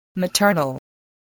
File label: "maternal", spelling voice